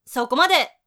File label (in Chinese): 到此为止, 女生; 俺娘